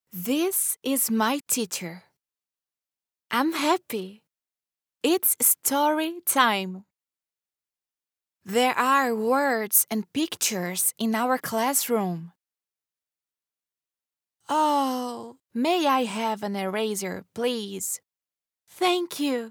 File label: English for Children Education Cheerful Young female Brazilian English; voiceover